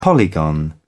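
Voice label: "polygon", British English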